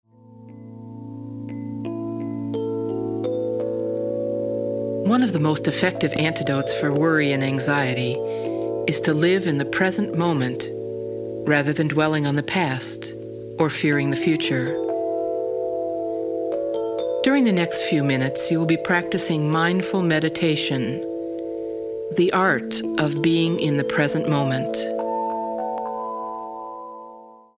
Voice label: Mindful Meditation; CALM